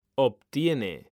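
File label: "obtiene", Spanish, male; mekanta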